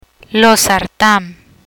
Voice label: "Losartan", Spanish